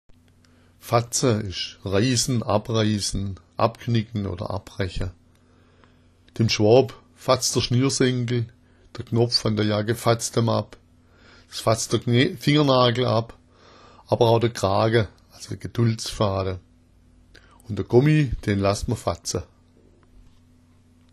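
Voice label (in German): "fatza", schwäbisch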